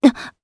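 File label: Vox, voices, Japanese; Hilda; kingsraid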